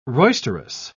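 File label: "roisterous", British English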